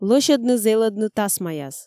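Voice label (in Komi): "лӧсьӧдны-зэлӧдны тасмаяс", Komi, female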